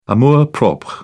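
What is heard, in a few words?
"amour propre", English, British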